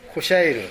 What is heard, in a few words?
"koshaeru", minamiyamagata